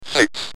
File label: "6", Speak & Spell UK